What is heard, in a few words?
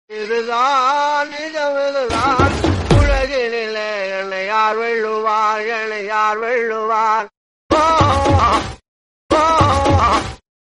telugu, comedy, dialogue; vadivelu